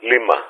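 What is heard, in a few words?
"Lima", spoken; Ka-50; Alphabet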